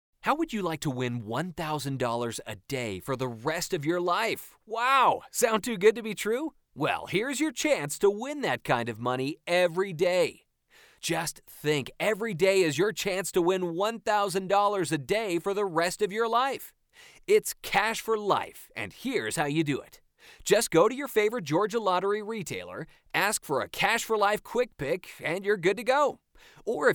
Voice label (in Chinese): 英男, 宣传, 磁性, 激情